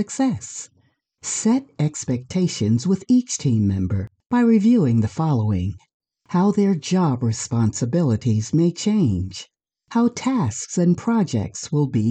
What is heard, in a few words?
eLearning Employee Onboarding